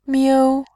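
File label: "miu", Mandarin, tone 1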